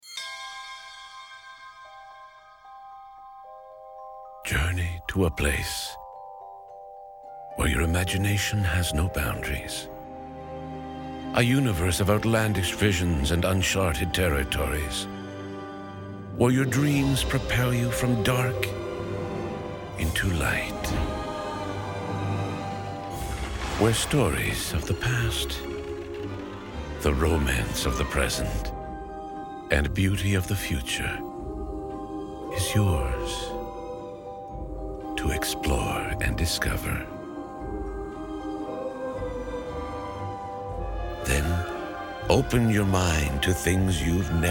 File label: voice demo